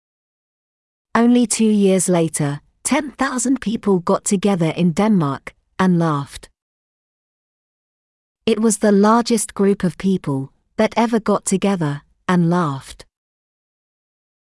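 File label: world laughter day, British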